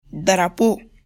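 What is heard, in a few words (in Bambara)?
"darapo", Bambara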